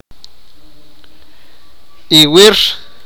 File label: sipakapense; yesterday